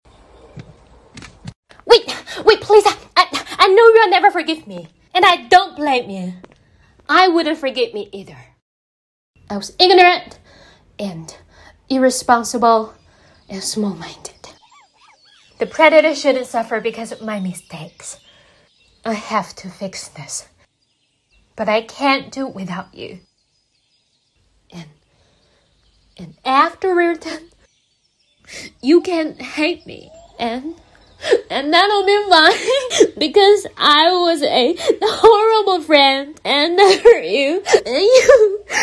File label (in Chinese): 英文角色青春朝气; 美式英语; 英语配音; 电影配音